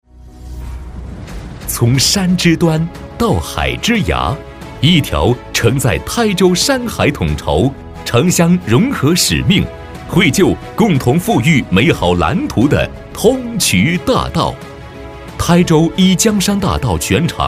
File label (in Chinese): 男, 宣传; 大道通衢谱新篇